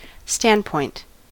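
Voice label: "standpoint", US English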